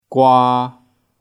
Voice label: "gwaa3", Cantonese

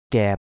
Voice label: "gep6", cantonese

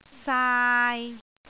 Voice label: "saai3", Cantonese

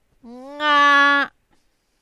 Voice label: "ngaa", Cantonese

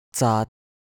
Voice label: "zaat3", cantonese

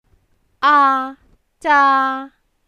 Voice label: aa zaa1, cantonese

